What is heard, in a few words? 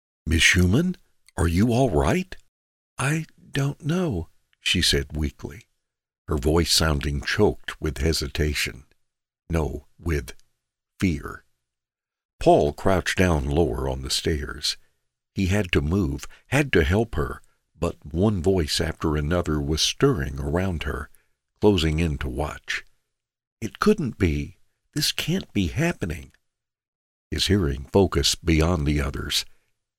Whisper